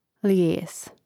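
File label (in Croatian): "lijes", Croatian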